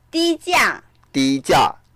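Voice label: "di1jia4", Mandarin Chinese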